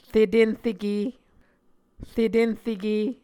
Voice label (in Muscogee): "retenreke", Muscogee